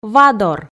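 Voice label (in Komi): "вадор", Komi, female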